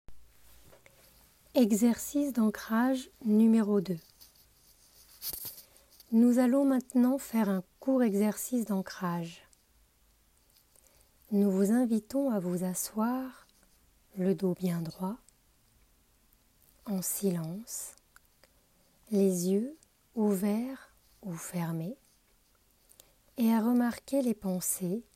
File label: Stress Management Grounding Exercise, French; WHO; Doing What Matters